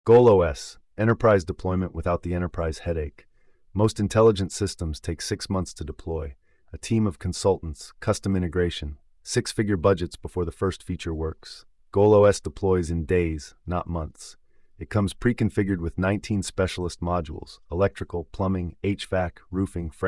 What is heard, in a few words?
narration